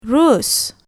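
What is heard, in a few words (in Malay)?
"rus", Malay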